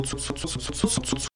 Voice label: dentals back, Romanian palatalization